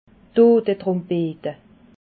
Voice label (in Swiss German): "totetrumpete", alsace